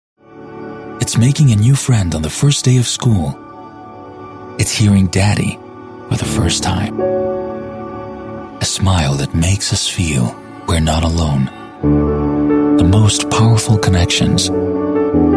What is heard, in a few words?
Commercials